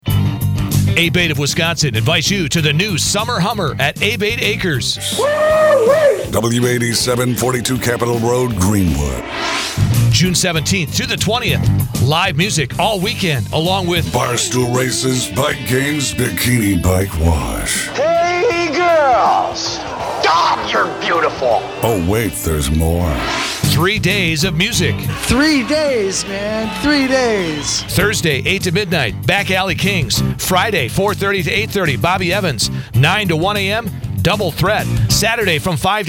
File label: Radio Ad